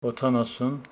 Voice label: Homshetsi eighty

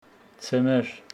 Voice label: Homshetsi winter

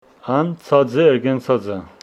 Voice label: Homshetsi he is lying down

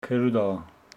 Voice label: Homshetsi cousin child of brother of mother

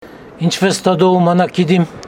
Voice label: Homshetsi, Speaker, i know how to work

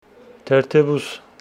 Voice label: Homshetsi eyelash

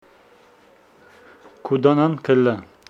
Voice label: he goes out of your house, Homshetsi

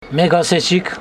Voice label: Homshetsi we dont tell

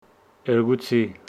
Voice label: Homshetsi two horses

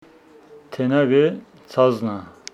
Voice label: Homshetsi the knife is on the ground

